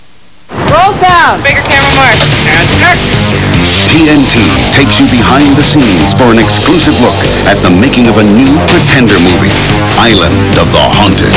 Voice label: narrator opening